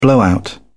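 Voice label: "blowout", British English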